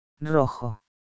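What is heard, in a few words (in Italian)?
"rosso", italiano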